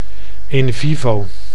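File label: "in vivo", Dutch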